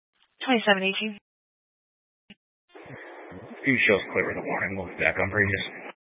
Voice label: Sheriff Dispatch; Mesa County